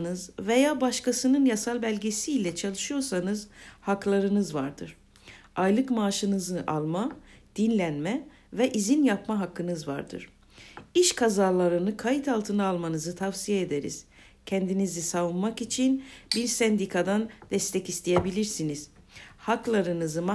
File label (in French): turc travail sans papier